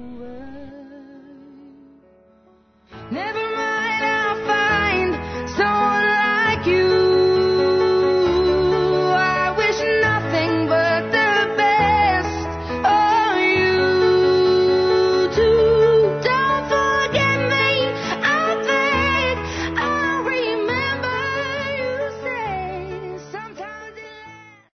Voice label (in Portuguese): Dia das Mães Para Sogra Masculino, Telemensagens; Telemensagens 2017